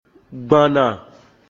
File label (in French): "Arriver", Mina; Gbànnà